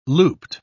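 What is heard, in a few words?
"looped", British English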